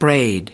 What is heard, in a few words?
"braid", us